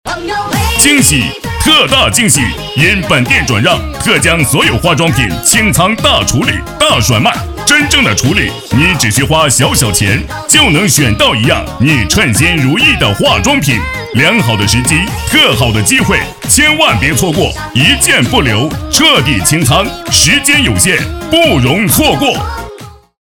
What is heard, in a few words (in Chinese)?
男 广告 洗化用品广告配音